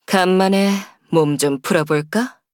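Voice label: Entry, Korean; Sigrid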